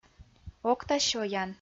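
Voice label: "окта сёян", Komi, female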